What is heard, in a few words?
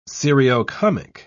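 "seriocomic", British English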